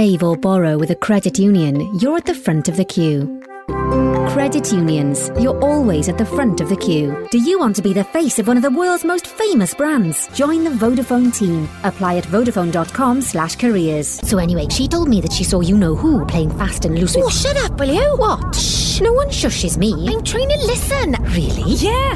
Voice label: welsh commercial